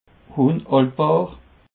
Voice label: "unhaltbar", Alsace

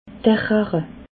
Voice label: "rache", alsace

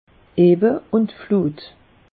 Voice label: "ebbe", alsace; meteo

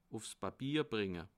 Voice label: "bringe", alsace

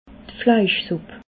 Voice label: "fleischsupp", alsace

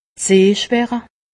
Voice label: "sehschwache", alsace; medecine

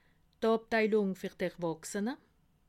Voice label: "erwachsene", alsace

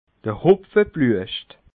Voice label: "hopfebluescht", alsace

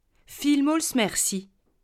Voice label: "merci", alsace

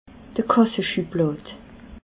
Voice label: "kassaschublad", alsace

